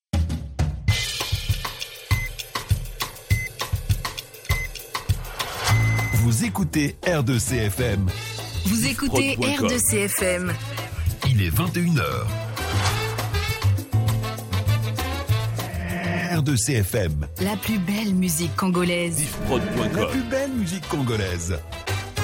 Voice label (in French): Top H, habillage